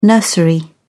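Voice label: "nursery", English, British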